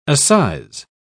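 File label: "assize", British English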